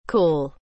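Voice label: "cool", English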